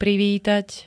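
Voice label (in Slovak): "privítať", Slovak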